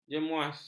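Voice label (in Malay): "jemuas", Malay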